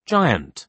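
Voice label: "giant", British English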